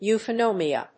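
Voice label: "euphonium", English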